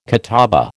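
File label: "catawba", American English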